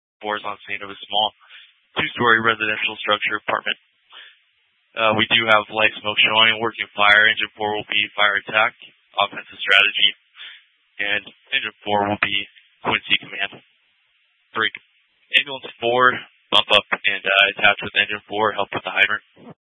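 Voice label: Incident Tactical 1, Scanner audio recording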